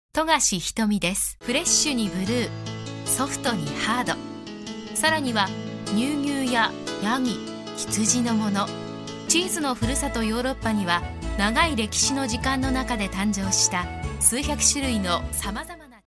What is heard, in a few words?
female VP